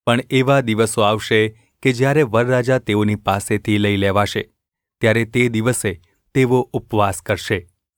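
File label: gu ulb mrk, Gujarati; mrk 2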